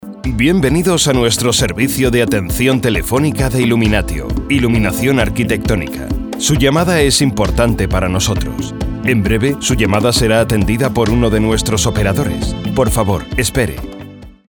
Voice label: spanish ivr